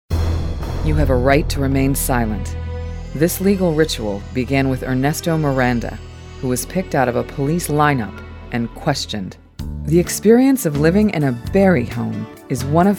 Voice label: Narration